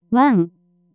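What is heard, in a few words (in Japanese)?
"ワン" Japanese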